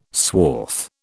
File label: "swath", British